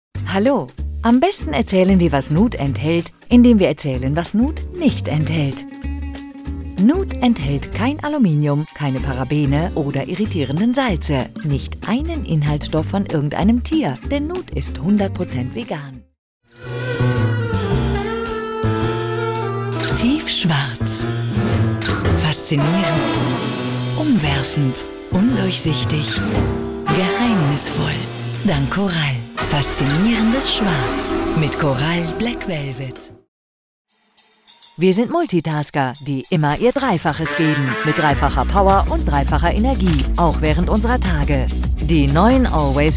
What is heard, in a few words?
Voice advertising